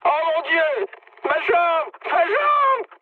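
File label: damaged pilot, mood high, French; War Thunder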